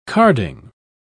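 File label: "carding", British English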